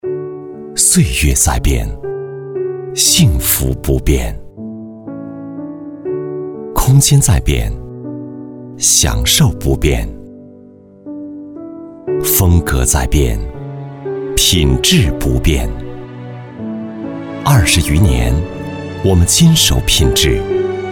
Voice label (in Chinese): 男 广告; 星艺装饰